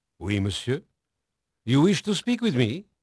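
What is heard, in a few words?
French male, voice; Pirates Of The Caribbean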